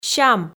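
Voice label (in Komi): "сям", Komi, female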